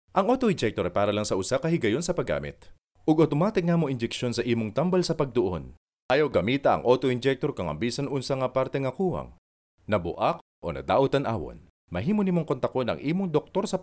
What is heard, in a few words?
Cebuano